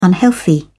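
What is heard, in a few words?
"unhealthy", British English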